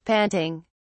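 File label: "panting", British English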